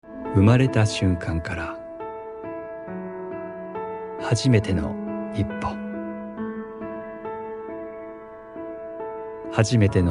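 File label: Japanese, commercial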